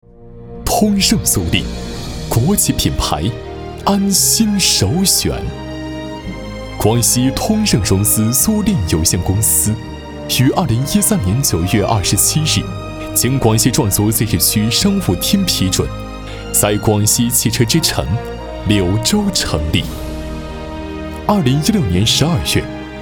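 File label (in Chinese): 男【宣传片】; 通盛租赁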